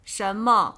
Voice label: "Shenme", Chinese